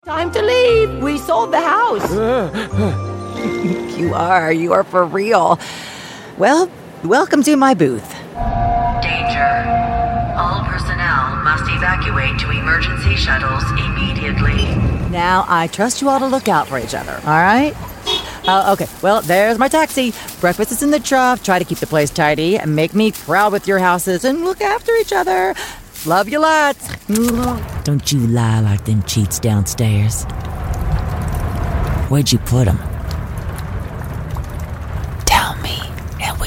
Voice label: american voiceover character, American Female Voice